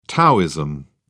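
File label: "taoism", British English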